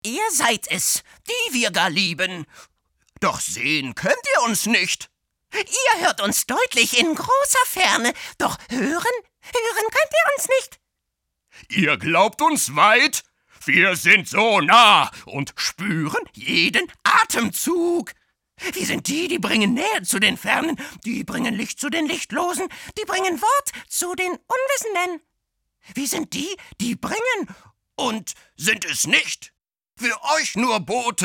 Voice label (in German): charge, maenner